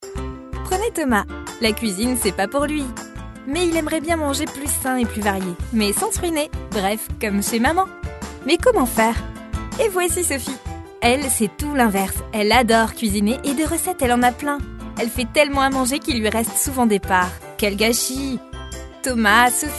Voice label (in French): Institutionnel Corporate Voix Off; Mummyz